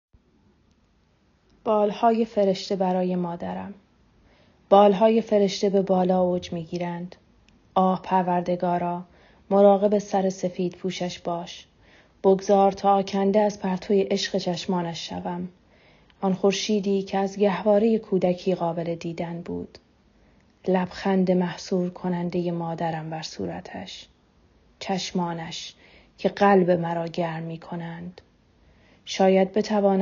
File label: persian; Persų (farsi) (IRN)